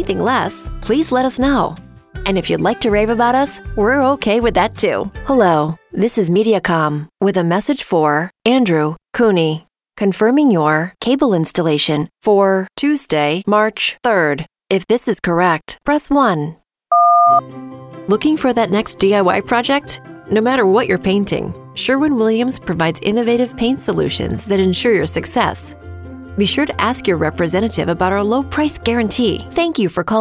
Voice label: On hold IVR